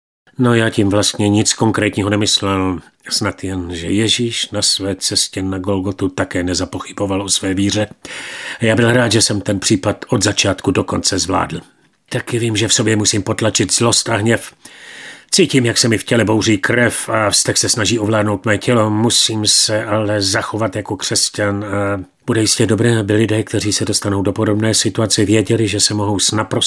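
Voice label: Male Czech drama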